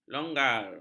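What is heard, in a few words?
"longgar", Malay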